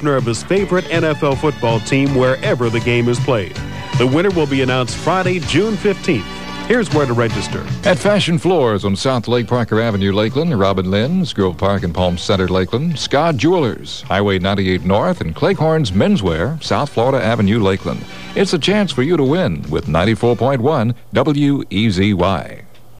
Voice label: Fantasy Kickoff promo; WEZY

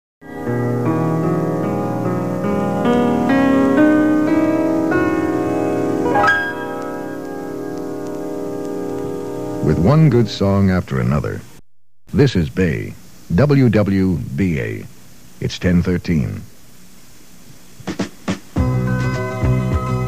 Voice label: commercial break; WWBA

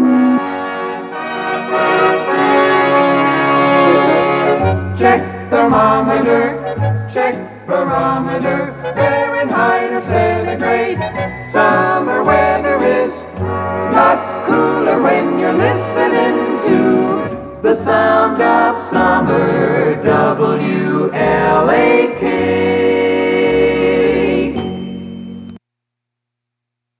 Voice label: News close and jingle; WLAK; NBC News; 1964

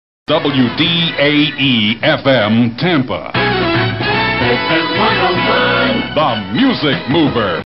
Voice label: Legal ID; WDAE; FM101; The Music Mover

